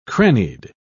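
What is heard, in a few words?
"crannied", English, British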